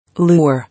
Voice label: "Lure", English